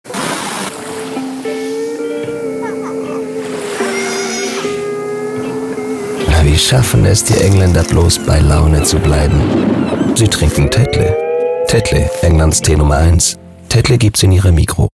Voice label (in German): TV-Spot Hoch-dt. Sprecher; Tetley-Migros